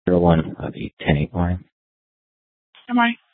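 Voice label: Police Department Dispatch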